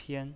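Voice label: "pian" first tone, pinyin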